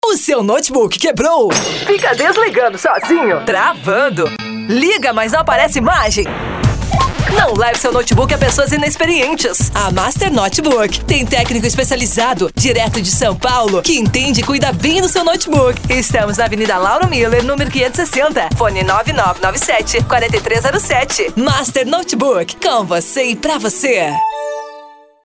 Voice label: SPOT; MASTER N B